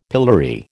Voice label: "pillory", American